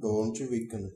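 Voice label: scream balloon description, Bulgarian; tuxpaint-stamps; symbols; shapes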